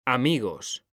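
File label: "amigos", Spanish, male; mekanta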